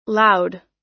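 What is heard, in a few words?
"loud", US English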